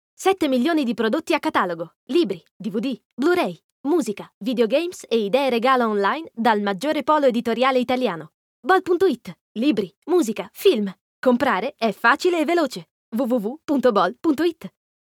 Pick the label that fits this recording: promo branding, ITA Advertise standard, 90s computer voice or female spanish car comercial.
ITA Advertise standard